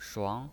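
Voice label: "shuang", Mandarin Chinese pinyin, second tone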